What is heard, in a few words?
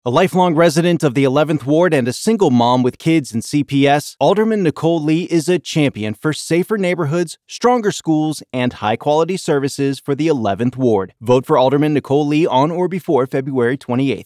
Political Ad